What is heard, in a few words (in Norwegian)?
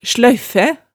"sløyffæ", hemsedalsmaalet